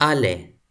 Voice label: "ale", Toki Pona

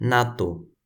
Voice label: "natu", Toki Pona

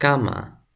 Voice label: "kama", Toki Pona

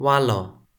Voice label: "walo", Toki Pona

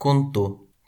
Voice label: "kuntu", Toki Pona

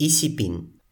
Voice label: "isipin", Toki Pona

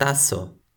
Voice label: "taso", Toki Pona

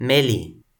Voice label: "meli", Toki Pona

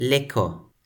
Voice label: "leko", Toki Pona

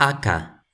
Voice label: "aka", Toki Pona

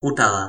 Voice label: "utala", Toki Pona; lipu linku; kalama

